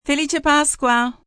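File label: Easter Italian F, female, Italian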